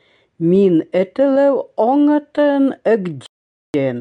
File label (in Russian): ЭВЕНСКИЙ; Нос